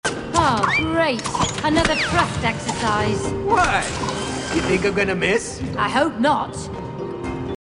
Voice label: voice dialog; it takes two

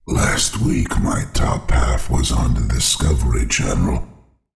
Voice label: crypt fiend pissed; Warcraft III Frozen Throne

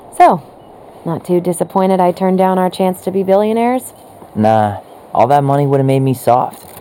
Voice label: conversation

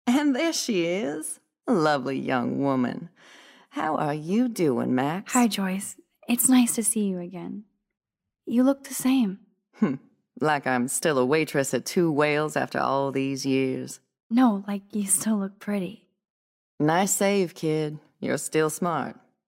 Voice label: diner voice; life is strange

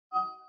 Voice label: dialog; kena bridge of spirits

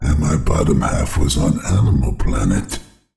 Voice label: crypt fiend pissed; Warcraft III Frozen Throne

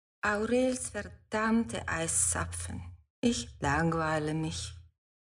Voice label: voice bored; baldursgate; branwen

